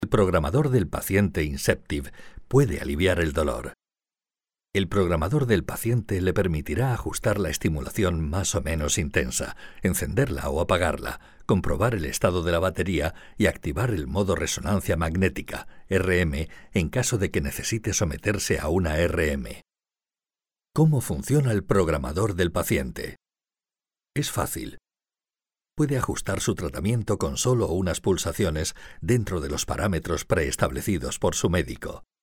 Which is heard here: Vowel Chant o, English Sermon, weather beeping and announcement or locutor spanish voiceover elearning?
locutor spanish voiceover elearning